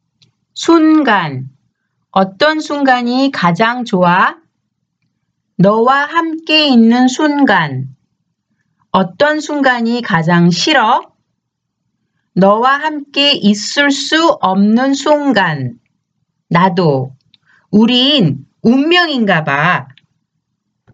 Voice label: conversation; K-Pop Volume 3